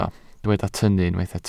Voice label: "tynnu", Welsh

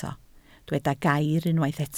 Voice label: "gair", Welsh

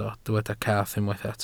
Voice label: "cath", Welsh

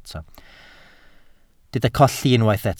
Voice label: "colli", Welsh

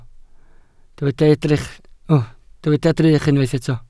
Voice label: "drych", Welsh

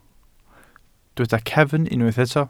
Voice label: "cefn", Welsh

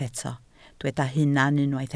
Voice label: "hunan", Welsh

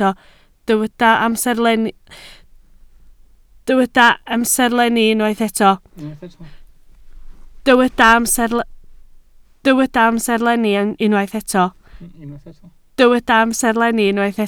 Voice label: "amserlenni", Welsh

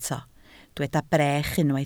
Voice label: "brech", Welsh

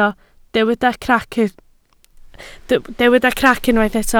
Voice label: "crac", Welsh

